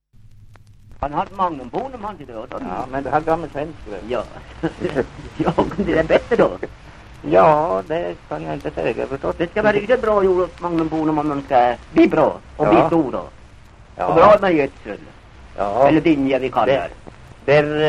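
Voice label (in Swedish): Hille Gästrikland, svenska sagor dialekter; Folkminnen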